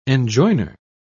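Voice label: "enjoiner", British English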